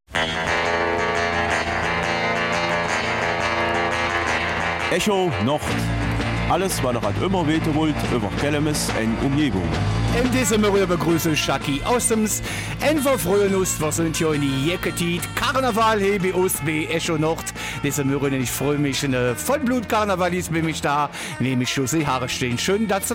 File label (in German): Kelmiser Mundart; Karneval lässt grüßen